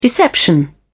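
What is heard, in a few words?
"deception", English, British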